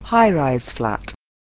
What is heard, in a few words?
"high-rise", English